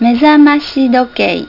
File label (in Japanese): "MEZAMASHI-DOKEI", Japanese Voice